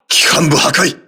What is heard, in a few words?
voice message engine destroyed, crew ships japanese